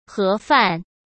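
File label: "盒饭", Mandarin Chinese; chinese flashcards; hsk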